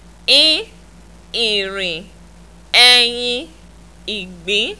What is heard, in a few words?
in in in in, Yoruba; NASAL VOWELS; irin eyin igbin